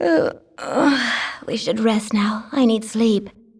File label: female; baldurs1